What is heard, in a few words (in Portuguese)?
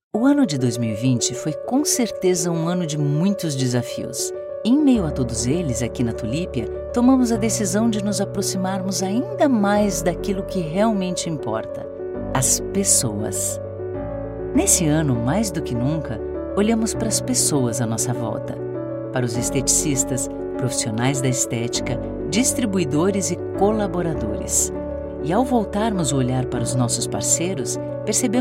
Institucional; Tulipia